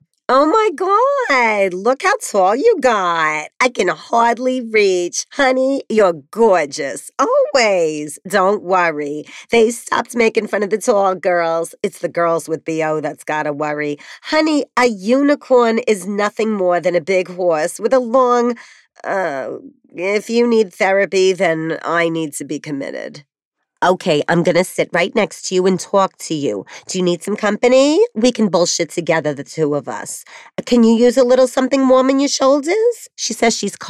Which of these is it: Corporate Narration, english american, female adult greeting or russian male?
english american